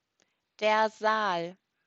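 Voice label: "Saal", German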